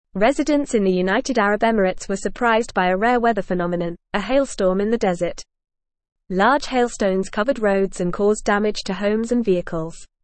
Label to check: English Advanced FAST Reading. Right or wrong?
Right